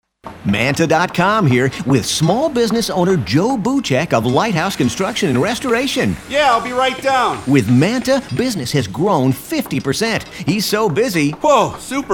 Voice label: commercial